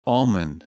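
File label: "almond", USA, male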